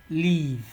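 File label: "leave", Nigerian Pidgin, male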